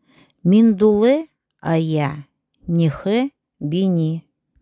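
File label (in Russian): УДЭГЕЙСКИЙ У меня хорошо идут дела; Миндулэ ая нехэ бини.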